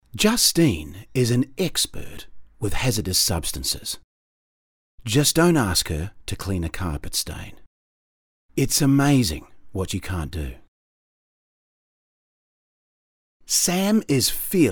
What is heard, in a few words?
TVC